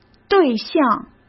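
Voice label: "对象", Mandarin Chinese; chinese-flashcards; hsk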